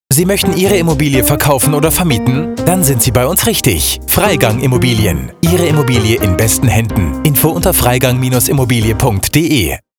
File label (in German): Radiospot; Freigang